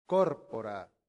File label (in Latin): "corpora", Latin; santillana